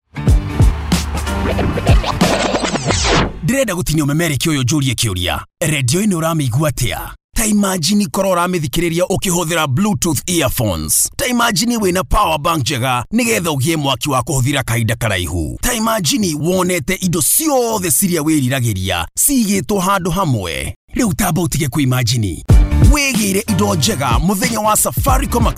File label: KIKUYU; ACC FAIR